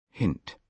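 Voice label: "hint", British English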